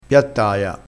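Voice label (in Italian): "piattaia", dialettale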